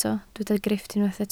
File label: "grifft", Welsh